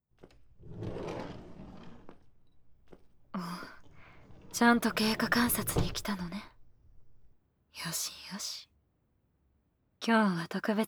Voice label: 低音ボイスの耳元煽り; 手コキ

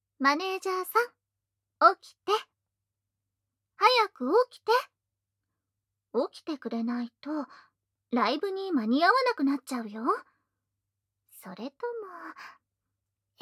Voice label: 急かしボイス SEなし; アラームボイス

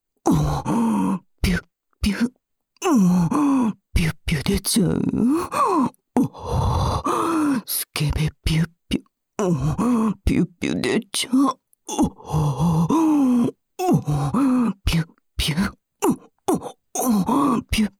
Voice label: サブキャラ 右 淫語連呼 ピュッピュッ射精, ASMR

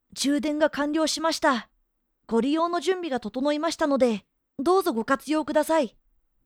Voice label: 充電完了, 真面目

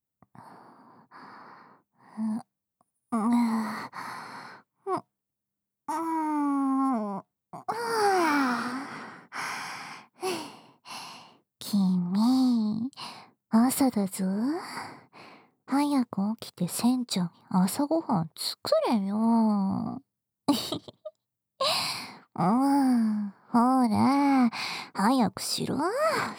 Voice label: ラブラブ目覚まし音声; ルビィ船長

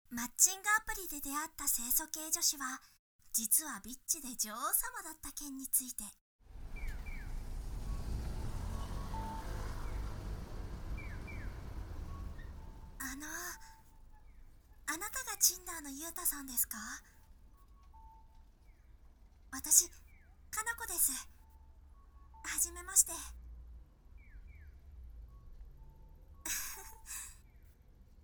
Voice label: BGMなし; マッチングアプリ

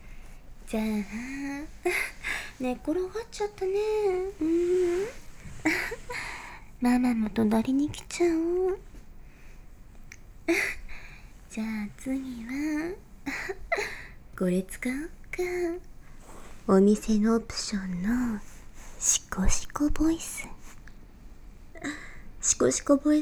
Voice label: シコシコボイス耳舐め

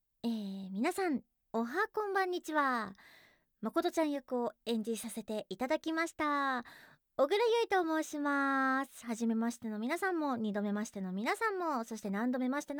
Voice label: フリートーク

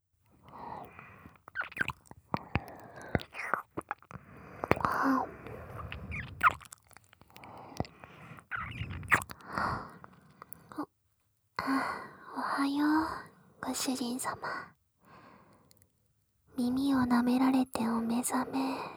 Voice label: メイドさんぽく、耳舐めもしながら朝起こすボイス, ASMR

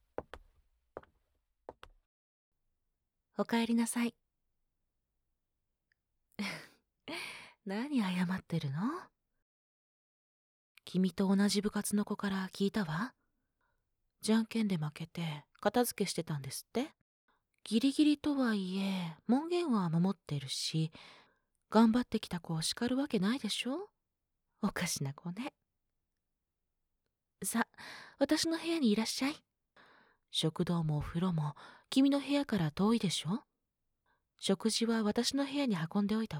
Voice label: お疲れ様 ドラマ 優しい寮母さん, ASMR

